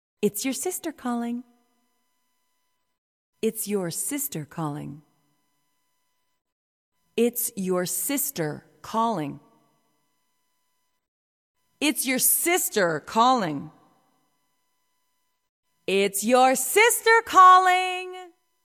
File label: female talking ringtones